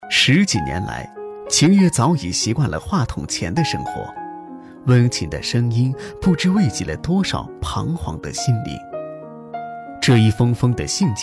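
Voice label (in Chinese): 温情人物; 卫计委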